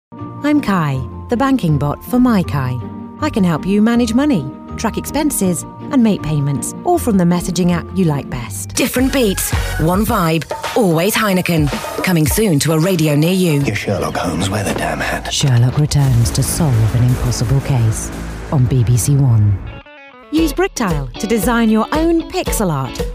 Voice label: commercial uk, Clear Confident